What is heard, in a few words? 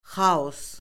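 "xaos", Azerbaijani; Orfoqrafiya Lüğəti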